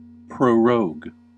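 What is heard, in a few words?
"prorogue", US English; pronunciations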